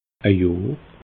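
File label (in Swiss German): "johr", Alsatian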